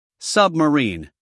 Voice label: "submarine", us male; everyone-can-use-english

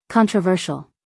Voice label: "controversial" US English, female; everyone-can-use-english

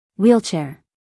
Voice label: "wheelchair", us female

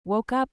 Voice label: "wokeup" us female; everyone-can-use-english

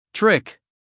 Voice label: "trick", US English, male; everyone-can-use-english

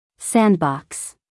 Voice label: "sandbox", US female; everyone-can-use-english

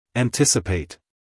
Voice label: "anticipate" us male

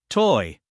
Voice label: "toy", US English, male

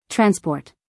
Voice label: "transport", us female; 1000 hours

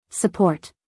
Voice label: "support", us female; 1000 hours

